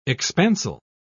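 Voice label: "expansile", British English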